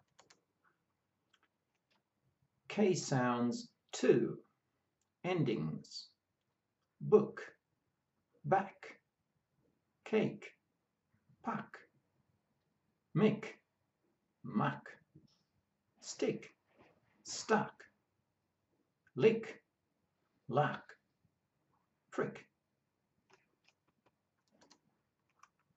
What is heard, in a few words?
K sounds, pronunciation of australian english